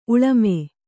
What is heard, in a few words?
"ಉಳ್ಮೆ", Kannada